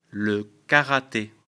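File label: "karate", French; afrikalan; lecture fr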